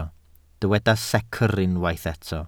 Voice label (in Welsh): "secr" Welsh